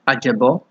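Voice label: "ajebo", Nigerian Pidgin, male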